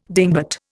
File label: "dingbat", Indian